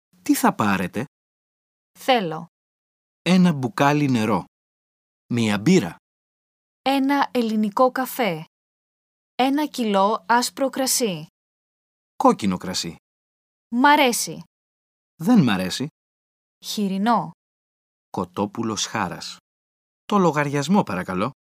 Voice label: Greek